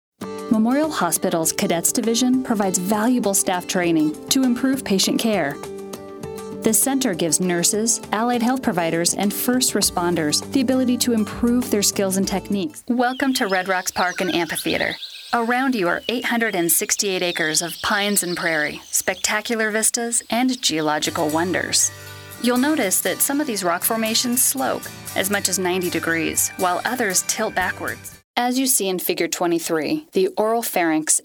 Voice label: Voice Talent Narration

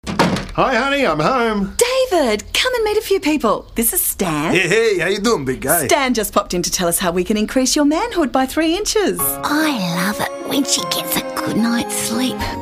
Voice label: Voice Talent Character Animation

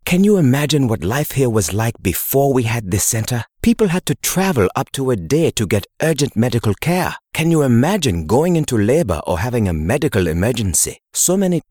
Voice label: South African Accent

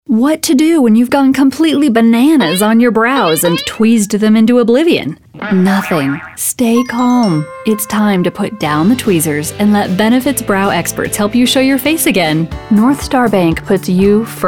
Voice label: Voice Talent Commercial

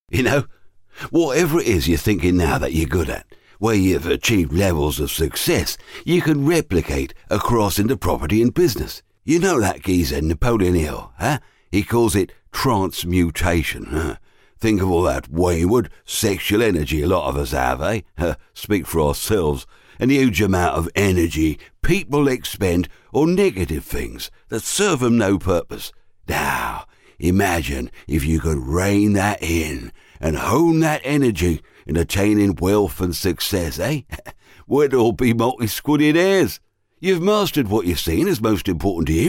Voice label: Voice Talent Character; Ray Winstone Style

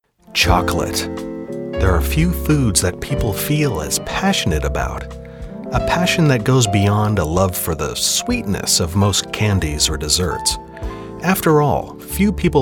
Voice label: Narration

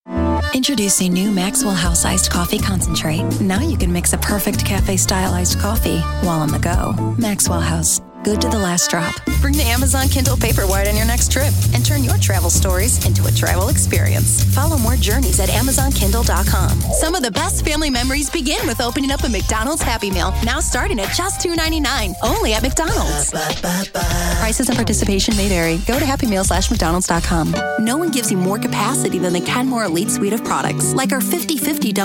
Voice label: Voice Talent Commercial

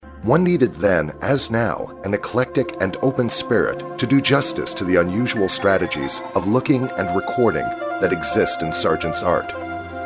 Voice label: Voice Talent Narration

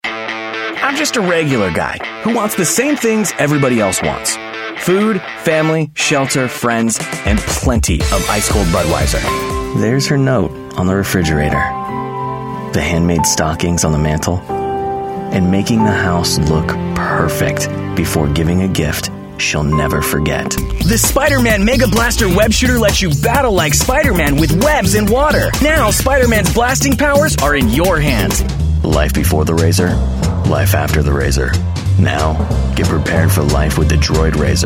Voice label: Voice Talent Commercial

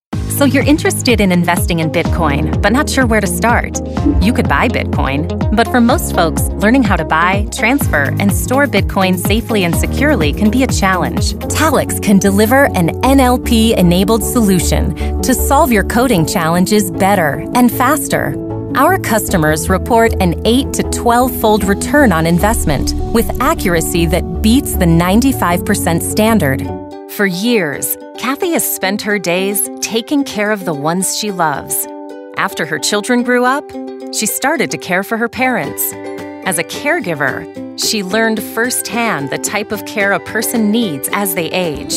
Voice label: Voice Talent Explainer

